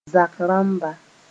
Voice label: "zak rãmba", moore

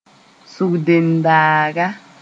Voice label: "sʋgdendaaga", Moore

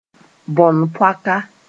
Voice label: "bõnpoaka", moore

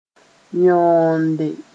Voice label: "yõonde", moore

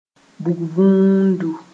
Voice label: "bug-vũundu", moore

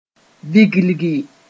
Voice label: "viglgi", moore

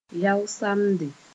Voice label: "yao samde", moore

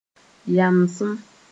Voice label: "yamsem", moore

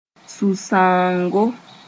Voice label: "sũ-sãoongo", Moore; sadness

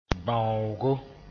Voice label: "bãoogo", moore

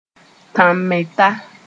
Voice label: "tãn-meta", moore

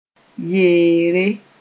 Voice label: "yeere", moore

